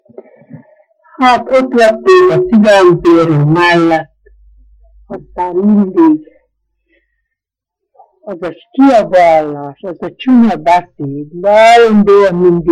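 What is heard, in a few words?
dialect collection; nyelvjaras gyujtesek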